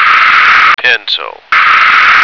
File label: "pencil", American